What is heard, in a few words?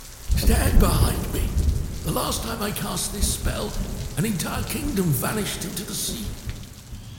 Characters Voices Wizard